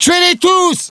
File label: kill them all, fr; cs venice